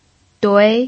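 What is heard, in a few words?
"dui", Mandarin Chinese, second tone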